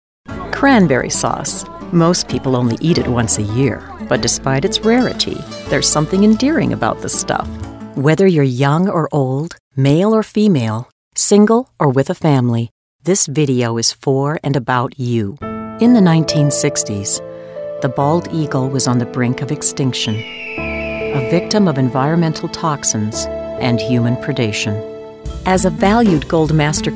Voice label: Narration